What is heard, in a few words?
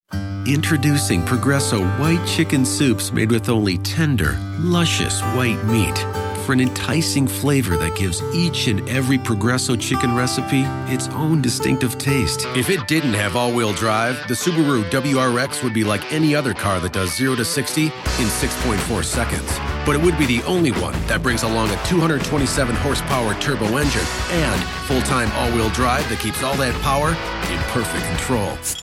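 American commercial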